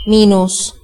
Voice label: "minus", cs; gcompris